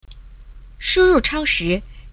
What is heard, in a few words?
Input Error, zh